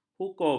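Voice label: "hukum", Malay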